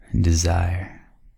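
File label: "desire", American English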